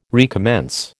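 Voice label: "recommence", American English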